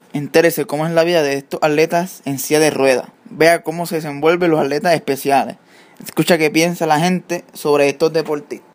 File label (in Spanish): Audio promocional